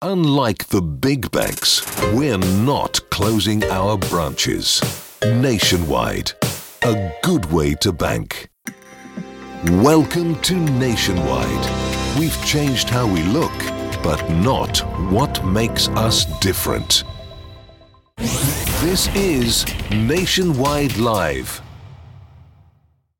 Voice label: system announcements